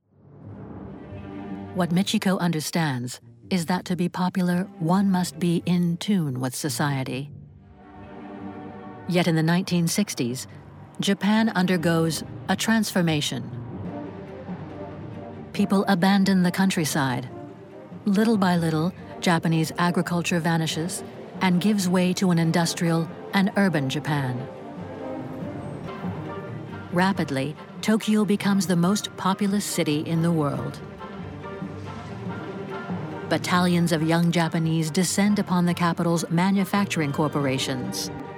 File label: docu; Japan